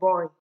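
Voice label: "boy", Nigerian Pidgin, female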